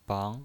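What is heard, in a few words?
"bang", Mandarin Chinese, second tone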